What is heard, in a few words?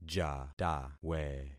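"jatawe", concatenated syllables; Affixing experiment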